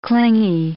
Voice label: "clingy", English